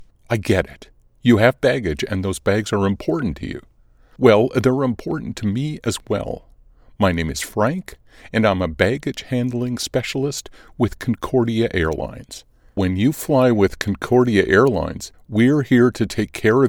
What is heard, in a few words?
Narrative